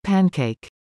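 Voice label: "pancake", English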